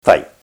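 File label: "tai", Māori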